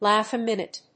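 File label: "laugh a minute", English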